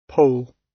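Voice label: "pole", British English; Time, history; Thời gian, lịch sử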